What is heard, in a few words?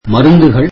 tamil medicines